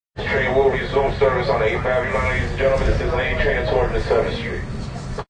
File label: Subway PA Announcement; Part of Subways (Sound Effects:Vehicles)